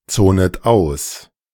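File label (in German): "zonet aus", German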